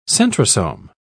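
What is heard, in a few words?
"centrosome", British English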